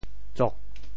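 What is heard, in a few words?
"tsok4", Teochew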